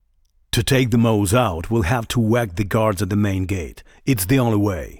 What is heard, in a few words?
Voice Dialog